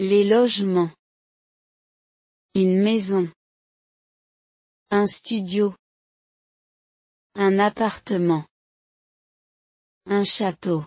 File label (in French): logements en francais avec prononciation